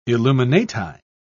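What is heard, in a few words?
"illuminati", British English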